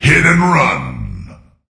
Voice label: Voices Announcer Reward